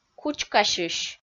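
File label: "кучкасьысь", Komi, female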